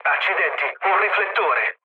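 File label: Italian searchlite mood low, speech; War Thunder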